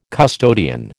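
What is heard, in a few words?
"custodian", American English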